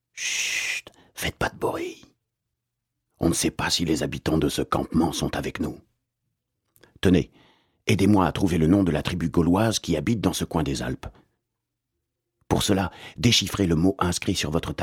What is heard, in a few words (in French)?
FR H AUDIO GUIDE; Français